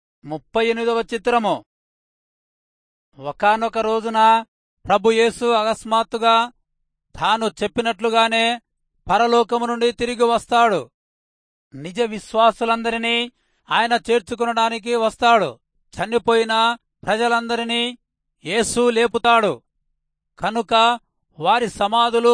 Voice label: telugu rayalseema; good news